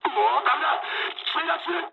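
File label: Japanese aircraft destroyed mood high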